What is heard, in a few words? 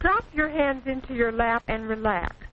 drop hands into lap, Quirky Female Typing Instructor; VOCALS and SPOKEN WORD